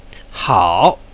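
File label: "hao3", Mandarin Chinese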